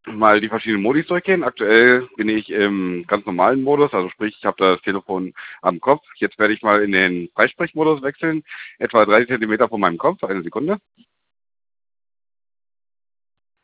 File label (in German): Cat S30 Sprachquali vorher